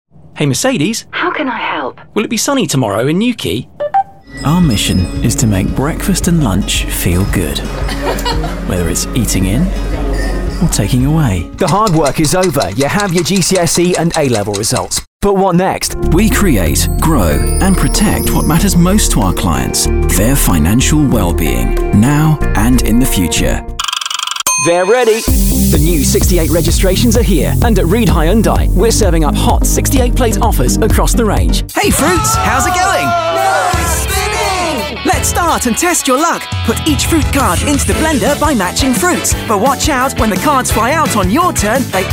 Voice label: commercial